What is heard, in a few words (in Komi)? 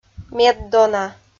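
"меддона", Komi-Zyrian, female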